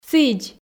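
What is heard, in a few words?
"сідз", Komi, female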